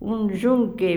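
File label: "un yunque", Spanish, La Plata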